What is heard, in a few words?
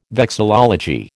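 "vexillology", American English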